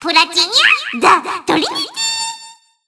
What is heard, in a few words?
System Voice; BBCP; Taokaka